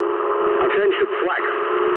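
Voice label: English damaged aa mood low; War Thunder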